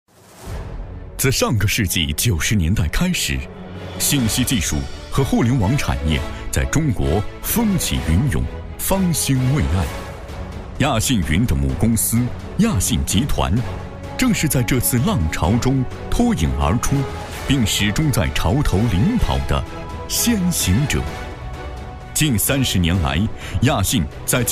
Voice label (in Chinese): 男 企业宣传; 亚信集团